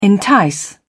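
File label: "entice", English, British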